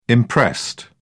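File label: "imprest", British English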